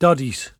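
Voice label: "dadies", Cromarty Fishertoun dialect; [dAHdees]; hawkers; Word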